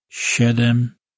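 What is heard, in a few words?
"shedem", Polish, male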